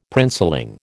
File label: "princeling", American